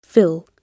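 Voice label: "fill", British English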